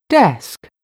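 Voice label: "desk", English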